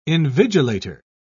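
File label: "invigilator", British English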